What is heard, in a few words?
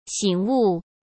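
"醒悟", Mandarin Chinese; hsk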